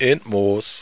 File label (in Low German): int mors, plattdeutsch